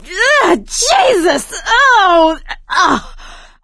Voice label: boomer reaction, survivor voice; Left 4 Dead 2; producer